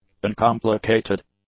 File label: "uncomplicated", English; adjectives